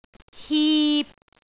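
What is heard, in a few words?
"hip3", Cantonese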